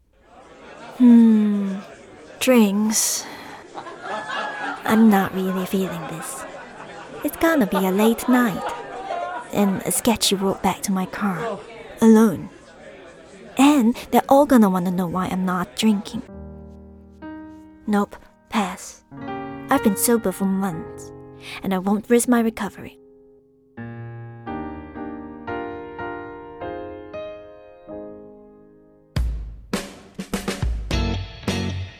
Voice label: E-learning Corporate